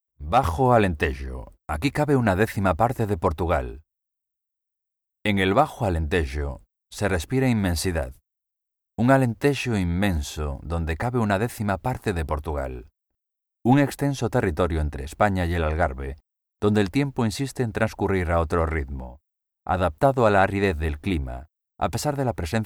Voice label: spanish documentaries